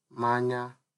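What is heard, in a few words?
"manya", Nigerian Pidgin, male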